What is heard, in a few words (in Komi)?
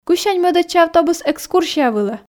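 Komi, кысянь мӧдӧдчӧ автобус экскурсия вылӧ, female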